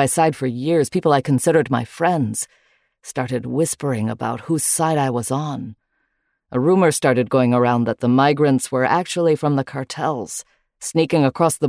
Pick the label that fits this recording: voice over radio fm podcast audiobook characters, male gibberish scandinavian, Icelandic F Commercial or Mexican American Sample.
Mexican American Sample